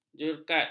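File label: "jerkat", Malay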